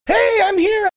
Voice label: saying hey im here funny